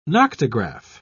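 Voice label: "noctograph", British English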